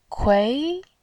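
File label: "kui2", Mandarin Chinese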